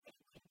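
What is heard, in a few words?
"петін", Komi, female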